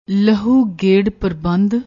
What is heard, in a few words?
"lahoo gerr parbandh", Punjabi; noun, masculine; circulatory system